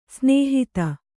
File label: "ಸ್ನೇಹಿತ", kannada